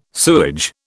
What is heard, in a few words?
"sewage", British